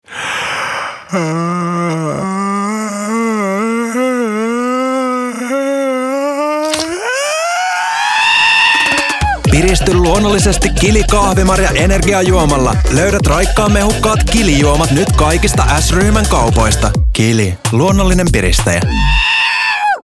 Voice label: Radio; Kili Radio Haukotus; kaffa roastery